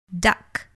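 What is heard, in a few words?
"duck", English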